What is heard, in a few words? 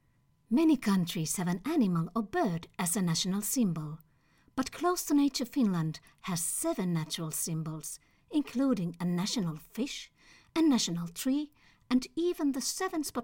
female Finnish English with Finnish accent documentary narration; Devine Voices